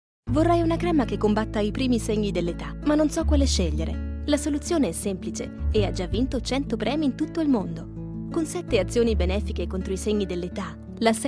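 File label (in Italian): voice Italian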